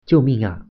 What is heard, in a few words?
"help", Mandarin